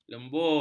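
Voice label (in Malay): "lembur", Malay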